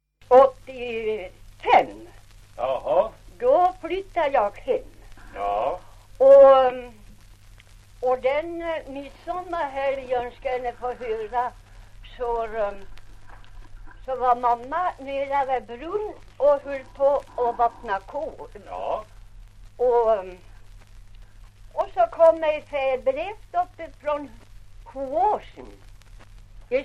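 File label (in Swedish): Ovansjö Gästrikland, dialekter; Folkminnen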